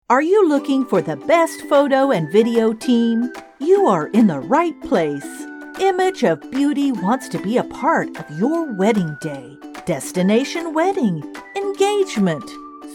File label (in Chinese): 外籍女英 外语 美式英语 轻松解说 轻松